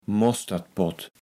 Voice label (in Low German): "Mostertpott", Platt